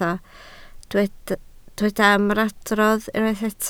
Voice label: "ymadrodd", Welsh

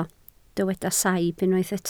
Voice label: "saib" Welsh

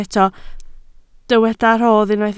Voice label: "rodd", Welsh

